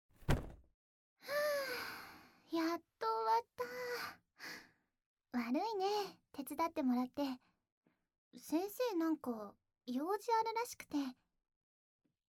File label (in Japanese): 静かだね_左右反転; 左右反転版